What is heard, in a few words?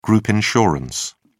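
"group insurance", British English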